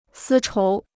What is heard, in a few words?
"丝绸", Chinese